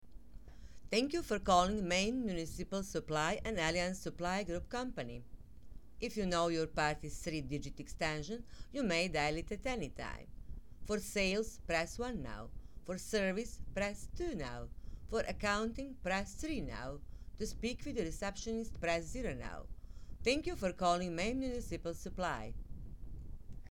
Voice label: PHONE MESSAGE